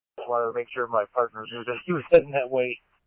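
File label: scanner, Phoenix Police Department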